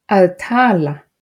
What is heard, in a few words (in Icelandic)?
"tala", regla 1